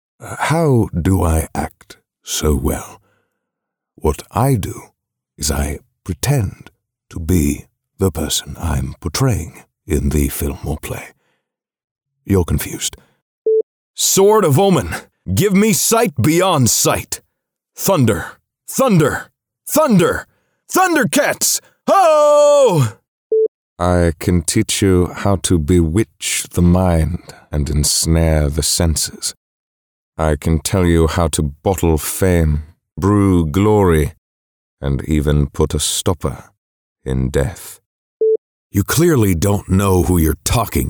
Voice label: Impressions